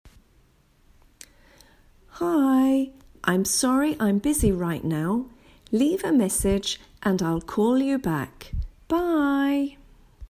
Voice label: answer phone message